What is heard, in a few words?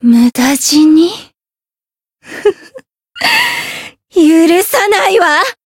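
retire, Japanese; ewella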